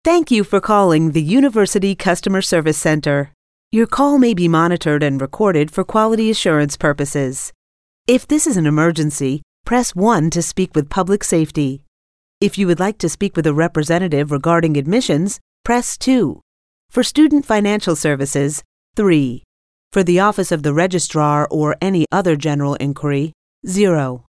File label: us english ivr